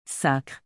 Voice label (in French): "sacres", Canadian French; Québec; swear words